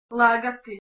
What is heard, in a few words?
"lagati" Bosnian Croatian Serbian